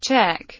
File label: "check", robot; lila